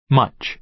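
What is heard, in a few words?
"much", American English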